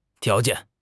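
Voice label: "tiao2 jian4", Mandarin Chinese; HSK4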